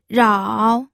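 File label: "rao", Mandarin Chinese, third tone; pinyin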